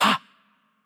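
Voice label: Greek attack light voice; bloom minigame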